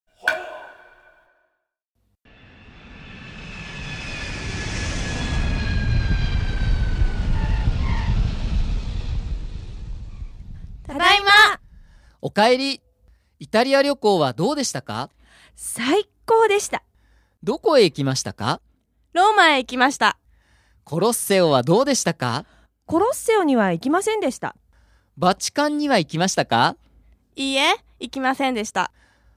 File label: dialog; JapanesePod101; Beginner Season 1